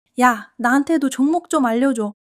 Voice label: excitement

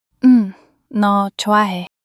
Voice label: shy

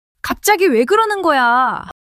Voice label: fluster

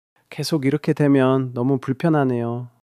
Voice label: sadness

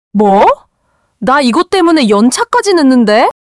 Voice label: anger

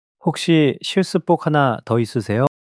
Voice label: shy

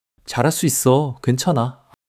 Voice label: joy

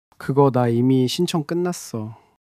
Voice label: sadness

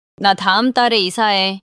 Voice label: neutral

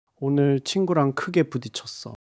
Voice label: sadness

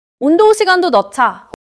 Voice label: neutral

